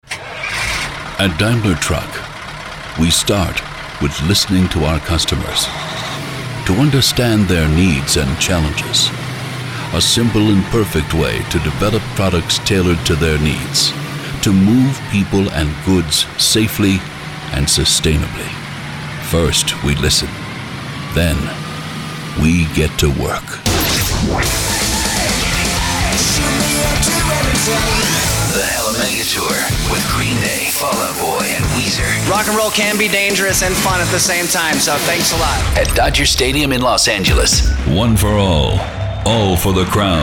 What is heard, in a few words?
EPIC